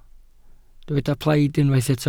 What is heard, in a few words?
"plaid", Welsh